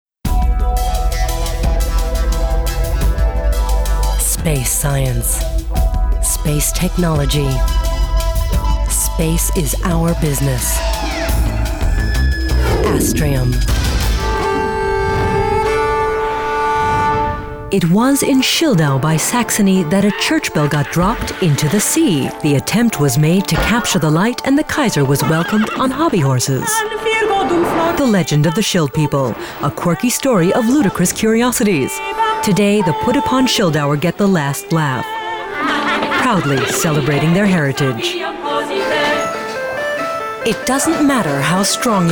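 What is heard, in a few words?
American English, Werbung, Freundlich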